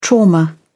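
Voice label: "trauma", British English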